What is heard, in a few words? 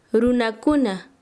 Chanka, quechua